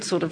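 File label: "sort of", SSB; 'sɔɾəv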